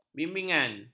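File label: "bimbingan", Malay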